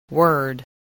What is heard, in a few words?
"word", voice, english